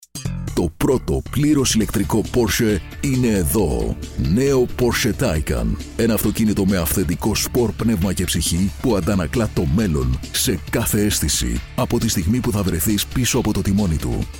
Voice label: Greek Radio spot